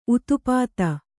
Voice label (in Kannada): "ಉತುಪಾತ", Kannada